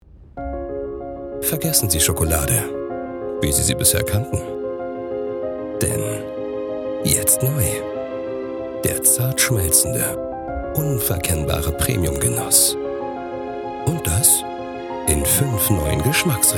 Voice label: Spot Schokolade

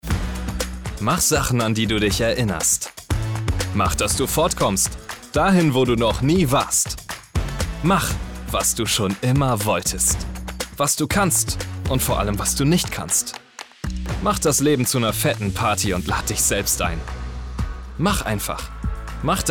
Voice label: Werbung Energy

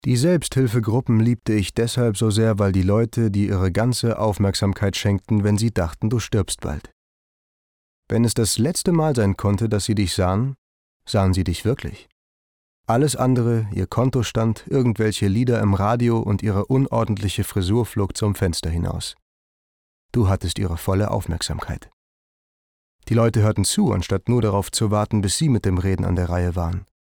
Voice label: hoerbuch; fight club

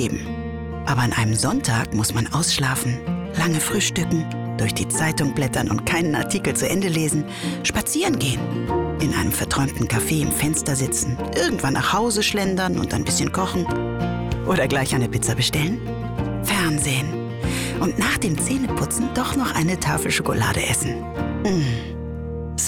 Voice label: Sonntage, Audiobook (Hörbuch), German

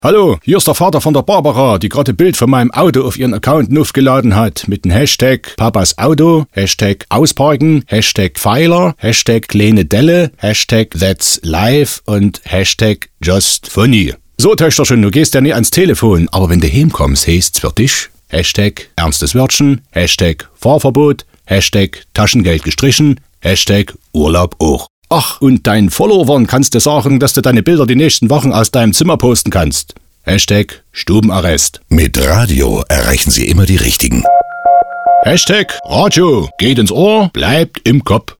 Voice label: funkspot

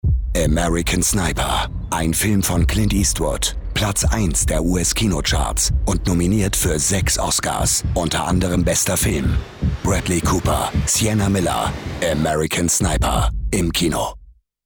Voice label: kinotrailer; american sniper

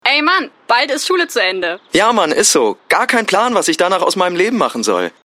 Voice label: Werbung; Kaufland

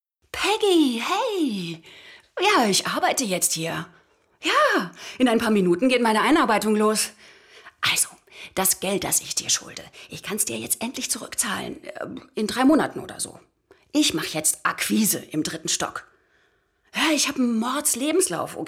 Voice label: Synchron Szene

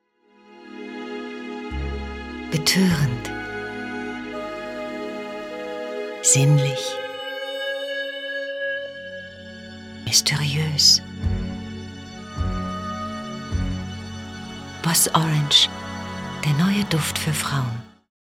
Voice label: Sprachproben sinnlich

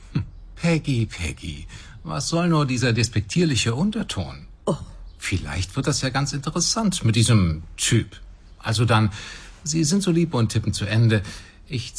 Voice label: Hoerspiel

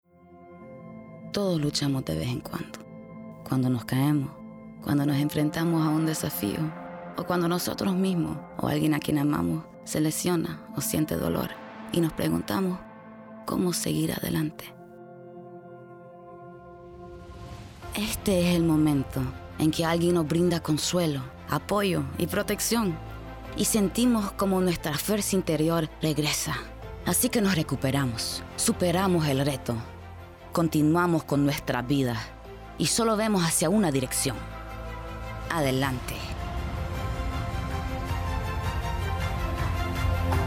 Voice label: Werbung spanisch; Hansa-Plast

